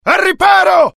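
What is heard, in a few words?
fear grenade, IT; mohaa; dialogue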